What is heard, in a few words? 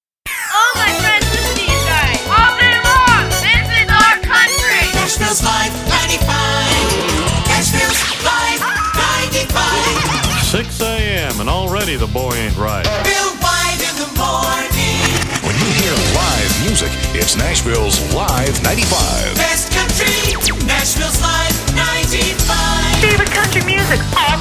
Filled Jingles; LIVE 95; station elements